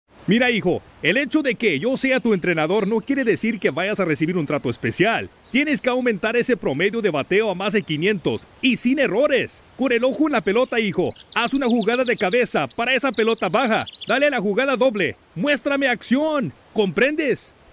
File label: Unrealistic Expectations Spanish